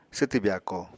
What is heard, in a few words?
"swtw byako", Apatani